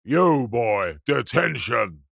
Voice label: old grumpy man english teacher says you boy detention; human; zapsplat